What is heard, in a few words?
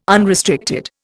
"unrestricted", Indian